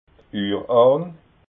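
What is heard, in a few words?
"urhahn", Alsatian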